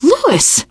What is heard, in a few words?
nice job, teengirl; voice; source engine; left 4 dead 2; survivor